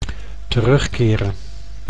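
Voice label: "terugkeren", Dutch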